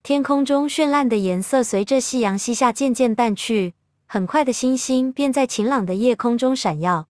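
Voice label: Taiwanese Chinese; zh-TW; iOS Sounds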